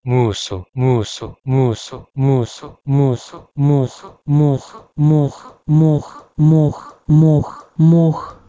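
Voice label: Proto-Indo-European muso to Ukrainian mox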